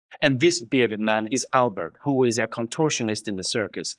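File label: Voice Acting es M, Spanish, male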